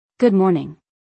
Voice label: "Good morning", English; Basic Greetings and Courtesy